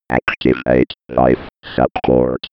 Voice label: 90s computer voice